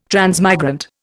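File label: "transmigrant", Indian English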